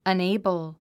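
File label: "unable", English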